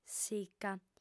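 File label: "sica", female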